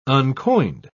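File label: "uncoined", British English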